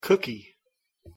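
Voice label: "cookie", English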